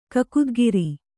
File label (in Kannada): "ಕಕುದ್ಗಿರಿ", Kannada